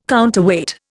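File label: "counterweight", Indian English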